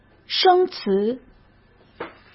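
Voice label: "生词", Mandarin Chinese; hsk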